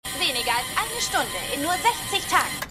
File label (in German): Trainingsfrau; Revolution